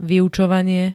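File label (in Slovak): "vyučovanie", Slovak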